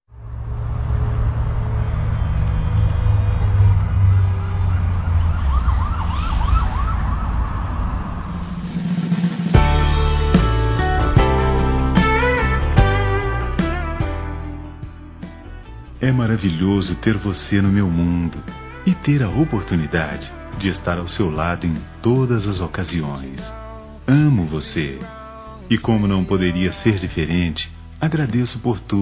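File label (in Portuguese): Mãe infantil, male, Telemensagens Dia das Mães; Masc; Telemensagens 2017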